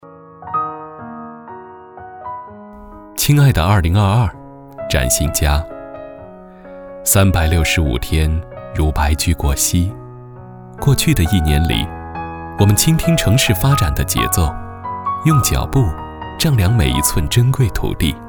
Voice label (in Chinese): 男, 旁白, 情感, 地产; 华建地产